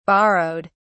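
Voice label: "borrowed", British English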